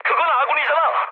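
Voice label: Korean player friendly fire mood med; War Thunder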